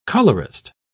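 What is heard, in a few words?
"colourist", British English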